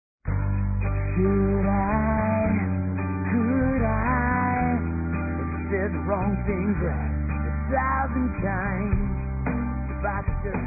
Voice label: Dia das Mães Geral Feminino; Telemensagens 2017

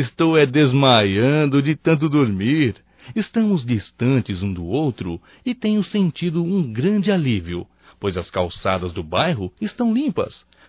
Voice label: Saudades Amiga (gozação) Masculino; Otimismo; Telemensagens 2017

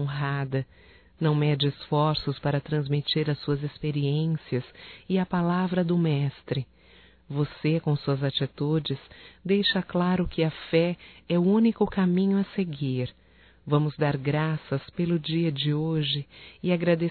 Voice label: Dia da Sogra Evangelica Feminino, Telemensagens, Só Voz; Telemensagens 2017

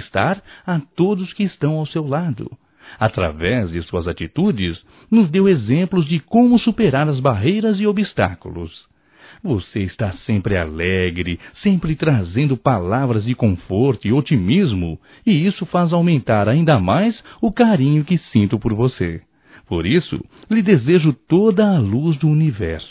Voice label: Dia das Mães Nora Masculino; Telemensagens 2017

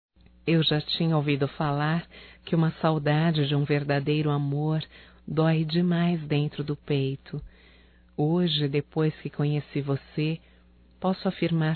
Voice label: SAUDADES (F)

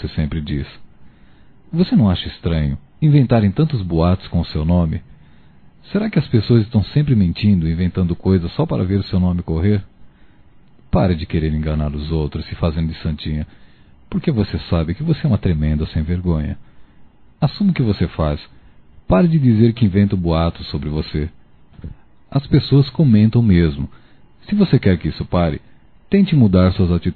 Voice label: Voce Esta muito mal falada Masculino, Broncas; Telemensagens 2017

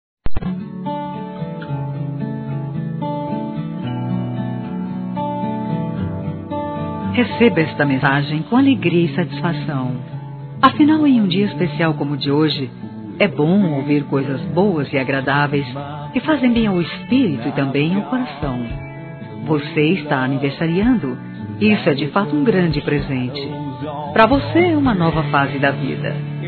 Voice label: Aniversário Adolescente Feminino; Aniversários; ANIV. DE ADOLESCENTE; Telemensagens

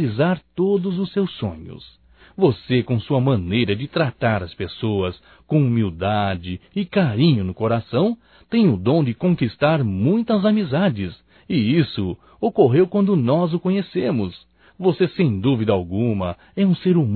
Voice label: Aniversário Amigo Nós Desejamos Masculino; Aniversarios; Telemensagens 2017

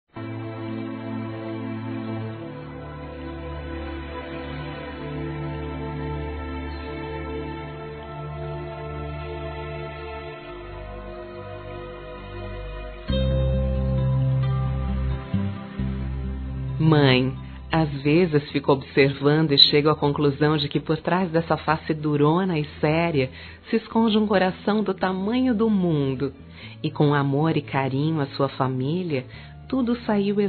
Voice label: MINHA AMIGA F, Telemensagens, Dia das Mães; Telemensagens 2017

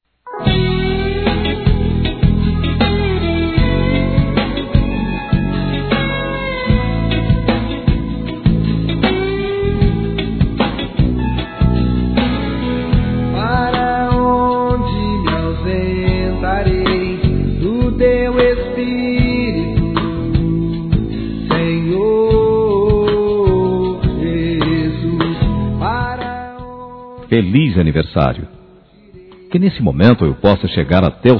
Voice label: distante, Telemensagens, Aniversários, Masc; ANIV. Evangelico

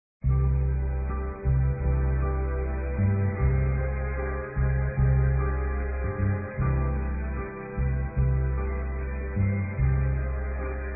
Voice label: Dia das Mães Geral Masculino; Telemensagens 2017

